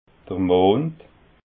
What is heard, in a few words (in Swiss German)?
"mond", alsace